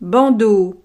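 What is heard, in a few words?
"bandeau", French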